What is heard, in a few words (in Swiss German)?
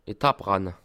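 "etappe", Bas Rhin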